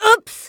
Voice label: excuse fem

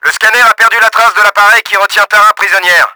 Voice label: LOST SHIP, french; Battle Engine Aquila; XBOX

